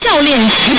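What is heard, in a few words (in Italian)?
"ciao", Italian; ciao, lì esci!; Sant'Erasmo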